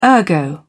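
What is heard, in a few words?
"ergo", British English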